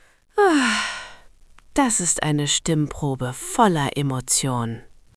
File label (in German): Müde, stimmen; moods